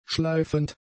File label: "schleifend", German; adjectives